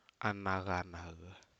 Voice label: forecast, Aneityum